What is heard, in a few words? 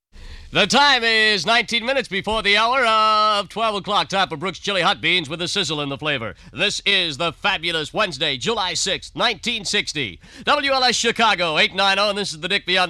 Radio Commercials; WLS Chicago; Dick Biondi